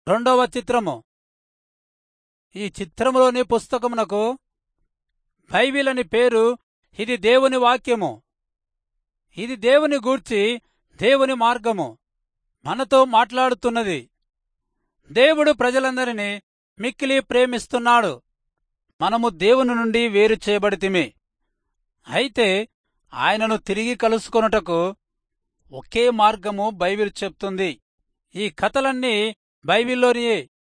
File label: telugu rayalseema; good news